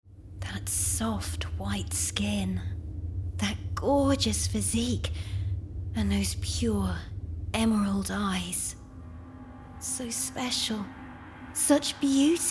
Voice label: Psycho Killer Character Sampler